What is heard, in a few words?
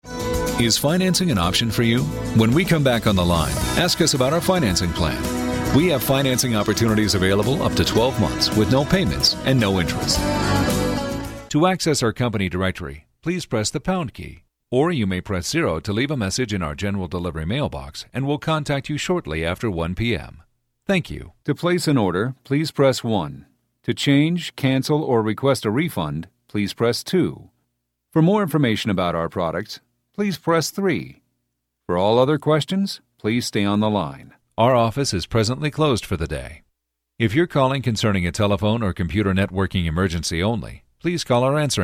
On Hold